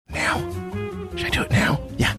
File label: whispering now should i do it now